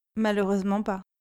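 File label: "malheureusement pas", French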